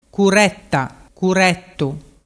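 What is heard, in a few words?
"curettarettu", Vernazzese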